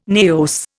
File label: "naos", Indian